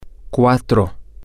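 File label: Spanish, female, male; four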